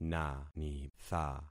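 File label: "nanitha", concatenated syllables; Affixing experiment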